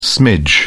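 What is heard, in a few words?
"smidge", British English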